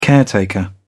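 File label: "caretaker", British English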